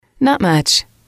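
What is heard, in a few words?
"not much", English, female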